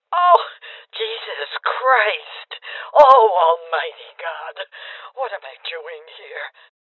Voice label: Voices Heavy Losses Female Old; rebel cops 2019 gamerip

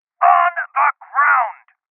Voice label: Voices Order To Surrender Male

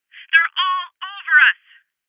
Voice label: Voices HeavyLosses Female

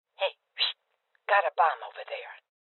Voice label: Female Old

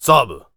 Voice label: VO warrior conversation emotion damn

